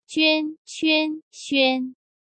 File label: juan quan xuan 娟 圈 轩, Chinese Pinyin